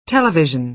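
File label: "television", American English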